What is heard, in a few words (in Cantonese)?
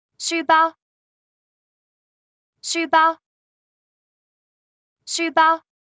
書包, Cantonese; Traditional Chinese